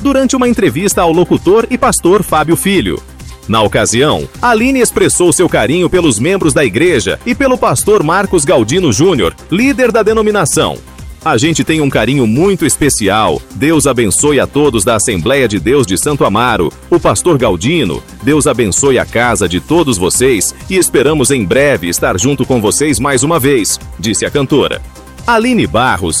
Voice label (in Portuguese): REPORTER GOSPEL; webradio